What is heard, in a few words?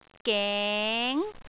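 "geng2", Cantonese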